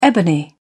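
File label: "ebony", British English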